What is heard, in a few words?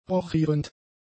"rochierend", German; adjectives